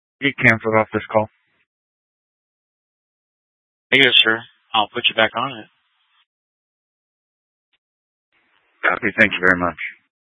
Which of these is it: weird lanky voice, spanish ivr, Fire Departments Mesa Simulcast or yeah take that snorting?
Fire Departments Mesa Simulcast